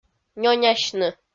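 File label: "нёнясьны", Komi, female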